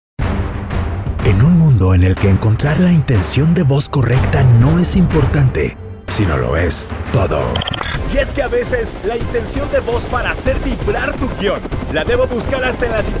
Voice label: spanish latin american commercial

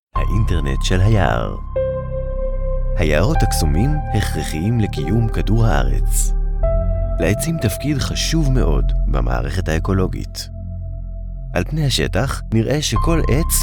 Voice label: hebrew documentaries

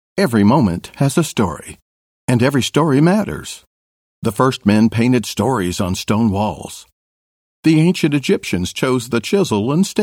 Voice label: english american

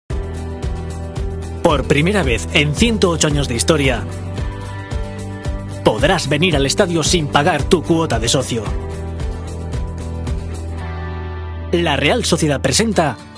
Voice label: spanish documentaries

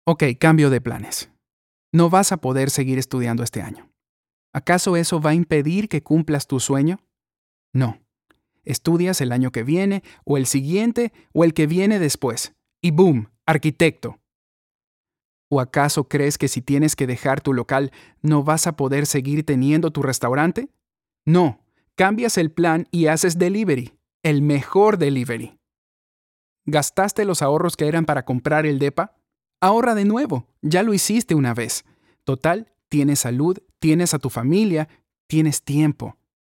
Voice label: spanish latin american

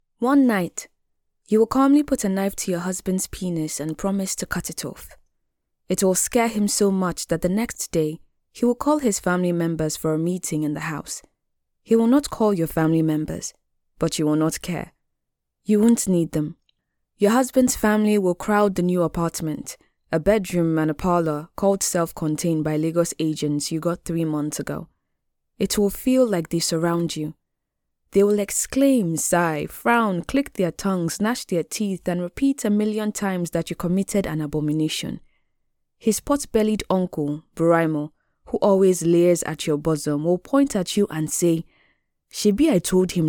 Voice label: English African audiobooks

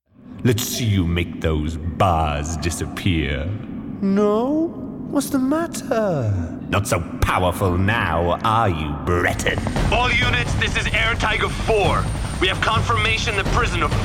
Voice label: english british video games

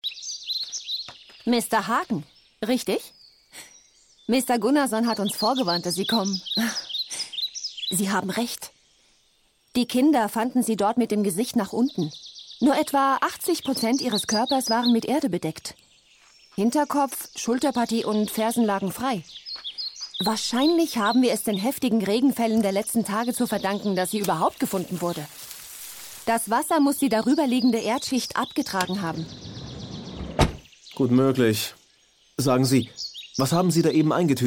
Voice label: german audiobooks; voice over artist native in both German and Norwegian

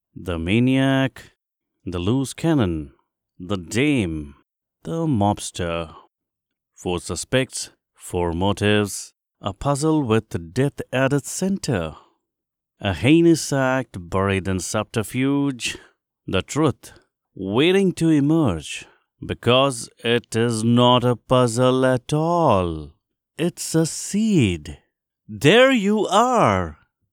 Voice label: english indian audiobooks

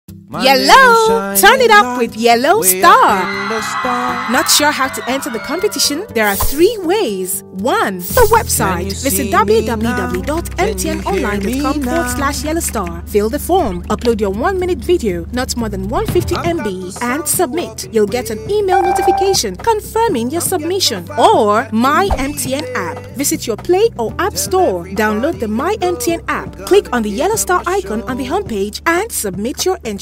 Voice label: english african tv shows

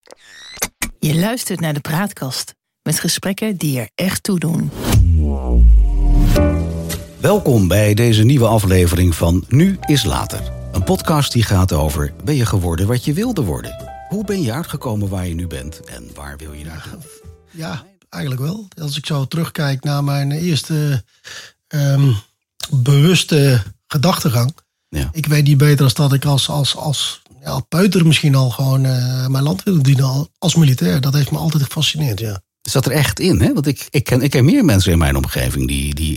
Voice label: dutch podcasts

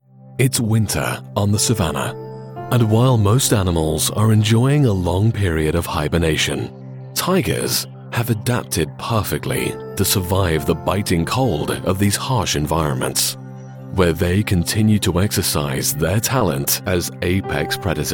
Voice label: english british documentaries

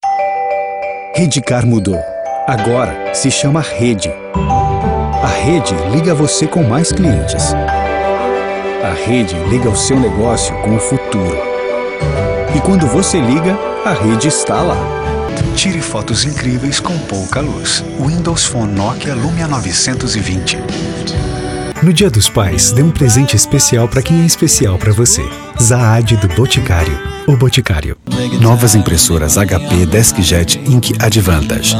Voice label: portuguese brazilian promos; voice actors

